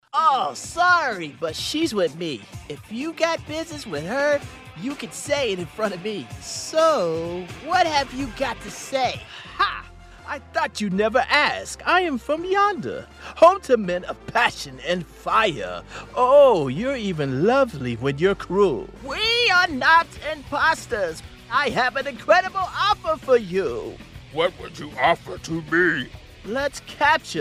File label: Character music